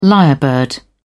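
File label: "lyrebird", English, British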